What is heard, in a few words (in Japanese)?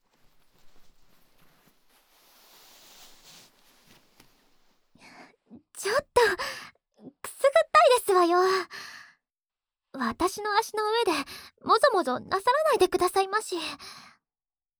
ささやかれながら右の耳かき, ASMR